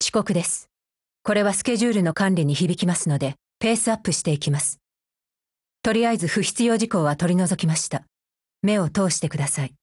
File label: HELLO JP; GF Alarm; AK15